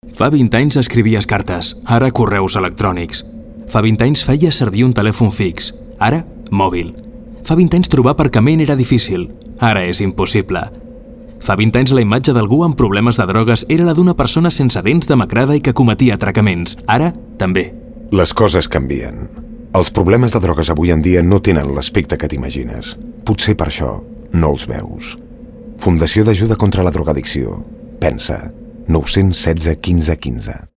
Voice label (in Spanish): Campañas Catalán